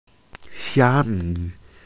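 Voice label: "xia1 mi5", Mandarin Chinese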